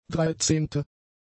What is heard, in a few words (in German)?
"dreizehnte", German; adjectives